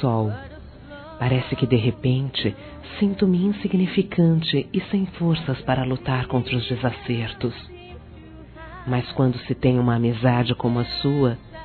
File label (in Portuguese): PRECISO DA SUA AJUDA, Fem; Amizade